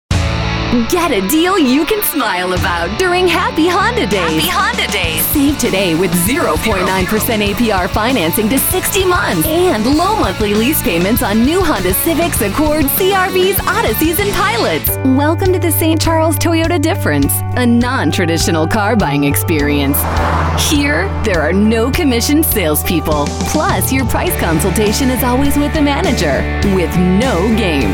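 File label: Automotive, female voice actor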